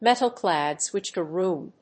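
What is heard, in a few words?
"metal clad switchgear room", English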